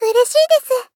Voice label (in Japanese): Polite Glad Ureshi desu; Rei Yumesaki